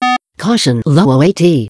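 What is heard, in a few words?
Beep Caution Low Outside Air Temperature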